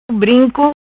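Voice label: "Brinco", patua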